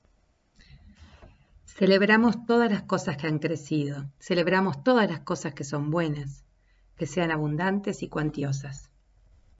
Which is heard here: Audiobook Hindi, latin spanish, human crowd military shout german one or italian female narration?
latin spanish